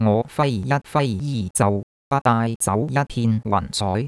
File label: cantonese; ekho